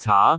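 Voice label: "caa2", Cantonese; google B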